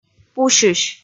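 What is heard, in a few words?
"пусьысь", Komi, female; kpv audio dict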